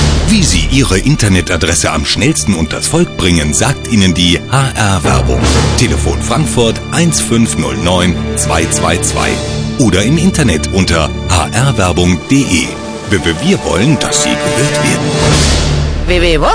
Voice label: Medien Radiowerbung

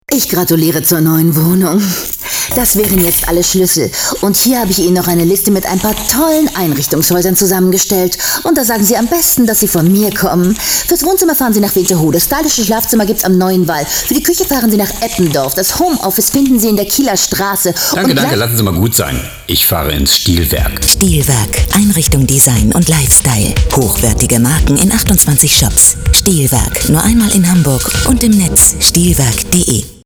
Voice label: Wohnen Radiowerbung

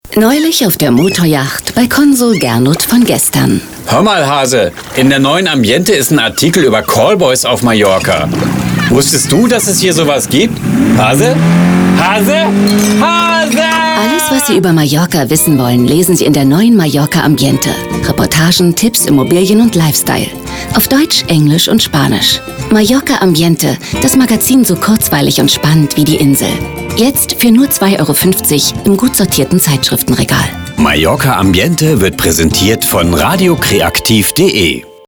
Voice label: Medien Radiowerbung

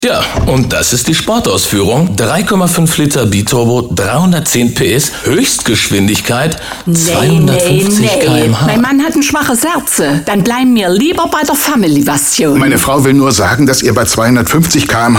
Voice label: Gesundheitswesen Pharma Radiowerbung

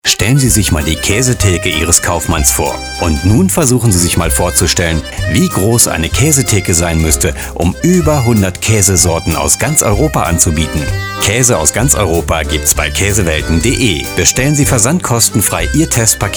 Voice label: Handel Radiowerbung

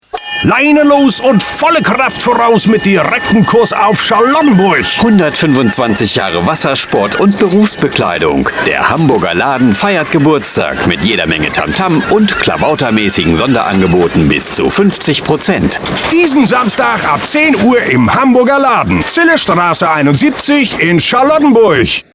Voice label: Handel Radiowerbung

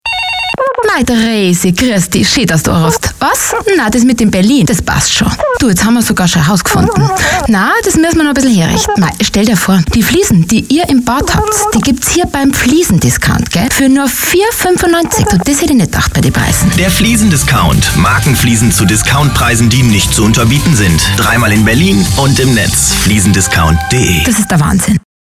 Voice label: Bauwesen Radiowerbung

